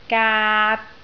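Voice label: "gaat3", Cantonese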